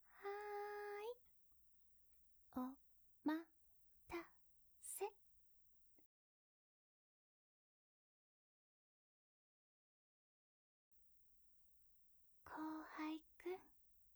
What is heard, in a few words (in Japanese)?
添い寝 安眠導入; 梓さんと添い寝; 元気がない後輩を癒してくれる上司の梓さん